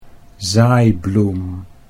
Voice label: "zaaibloem", Dutch

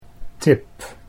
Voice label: "tip", Dutch

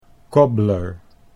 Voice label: "cobbler", Dutch English

